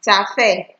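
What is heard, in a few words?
"terfere", Nigerian Pidgin, female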